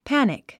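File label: "panic", English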